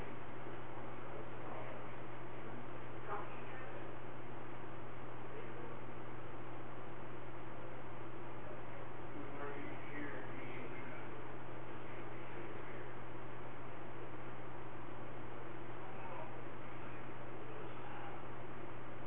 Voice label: Whisper; Boskeez Grill